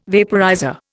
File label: "vaporizer", Indian